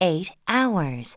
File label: english 6 hour; samsung YP-U5; Audio User Interface